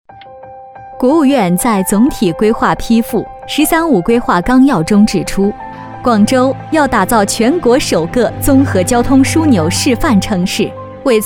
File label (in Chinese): 专题 成熟, 女; 城市战略